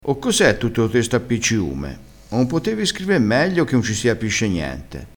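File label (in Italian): "appicciume", dialettale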